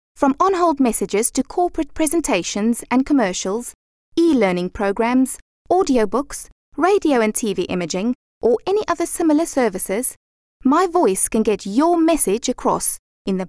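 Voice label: South African English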